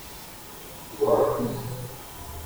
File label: Male; VOICE ISOLATED